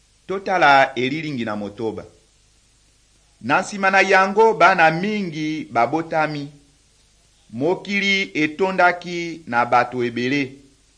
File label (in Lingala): good news lingala west, drama